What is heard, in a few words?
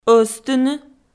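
"ӧзтыны", Komi, female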